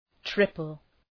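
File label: "triple", English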